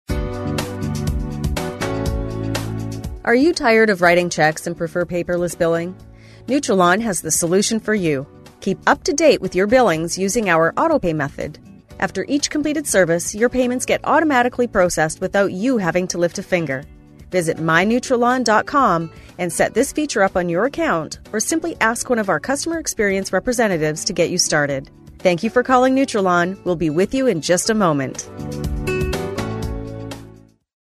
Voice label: On Hold